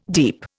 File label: "deep", Indian